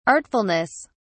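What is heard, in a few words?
"artfulness", English, British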